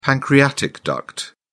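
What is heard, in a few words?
"pancreatic duct", British English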